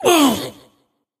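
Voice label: drink poison, Chinese; Aphelios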